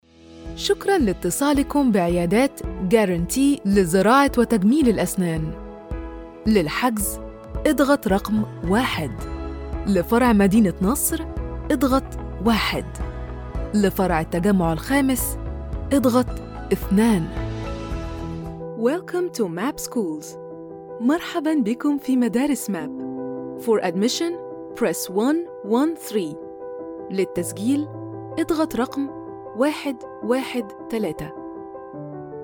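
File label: Arabic English female IVR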